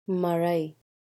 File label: Marrai Rain, Tamil